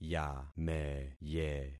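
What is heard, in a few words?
"yameye", concatenated syllables; Affixing experiment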